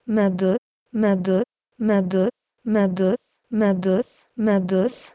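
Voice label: Proto-Indo-European medhu to Lithuanian medus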